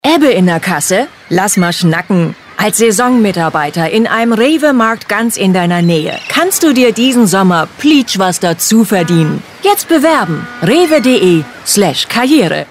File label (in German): norddeutsch